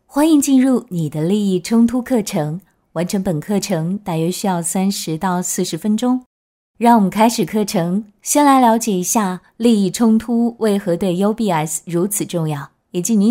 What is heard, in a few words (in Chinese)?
女 台湾腔